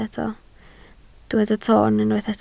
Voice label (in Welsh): "toon" Welsh